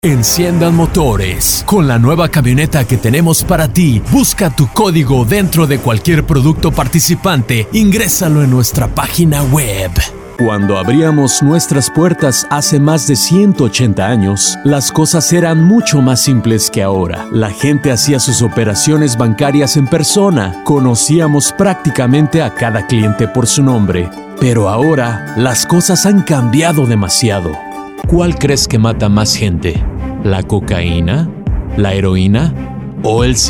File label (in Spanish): Voiceover, comerciales de radio; Servicio de voiceover y producción de comerciales de radio